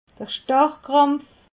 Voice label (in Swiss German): "starrkrampf", alsace